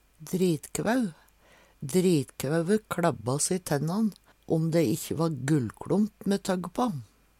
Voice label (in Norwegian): dritkvau, Numedalsmål